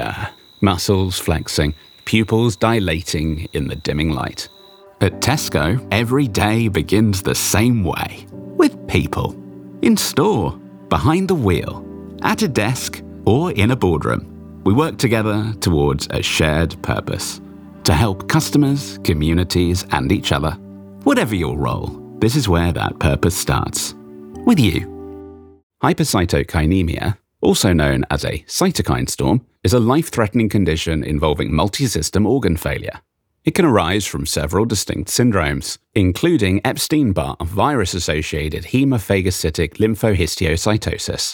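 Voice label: Narrative, British Male Voice Actor, British RP voice; Narrative Reel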